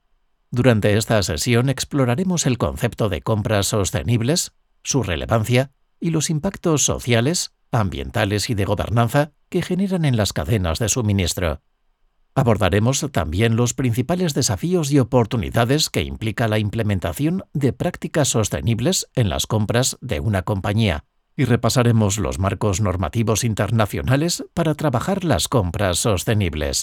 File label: spanish e-learning, spanish voice actors